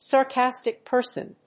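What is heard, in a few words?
"sarcastic person", English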